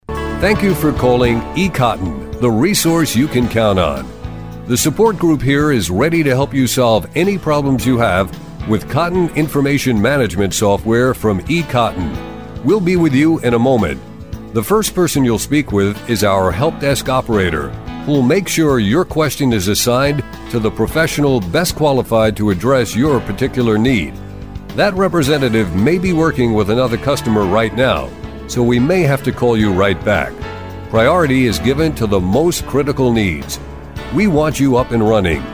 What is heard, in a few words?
OnHold Messaging